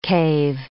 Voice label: "cave", English

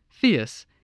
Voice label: "fierce", spelling

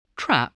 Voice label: "trap", spelling

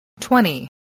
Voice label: "twenty", English spelling voice

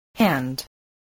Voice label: "hand", spelling

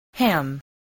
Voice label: "ham", spelling

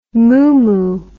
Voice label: "moomoo", English

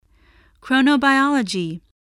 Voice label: "chronobiology", English, voice

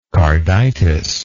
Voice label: "carditic", English

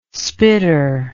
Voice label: "spitter", English

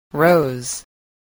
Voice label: "rose", English spelling

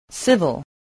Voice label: "civil", English, voice

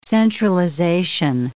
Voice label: "centralization", English

